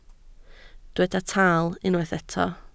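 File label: "taal" Welsh, spoken word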